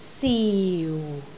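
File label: "siu4", Cantonese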